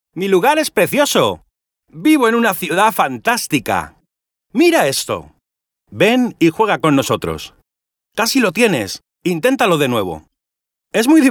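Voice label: Spanish male children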